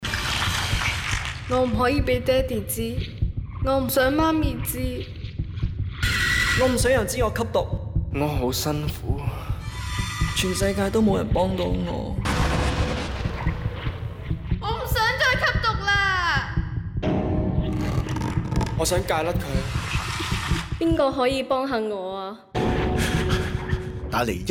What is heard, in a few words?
antidrug youngsters cantonese; 企硬2012(青少年篇)